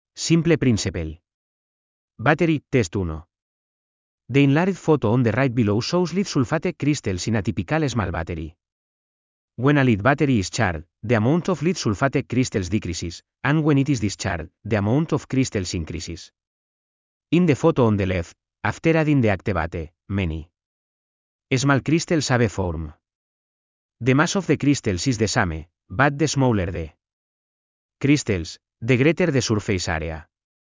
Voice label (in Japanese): ナレーター スペイン語